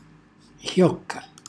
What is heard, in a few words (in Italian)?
"xjocca", Calabrese; χjòcca; chioccia; cucinacalabrese; glossario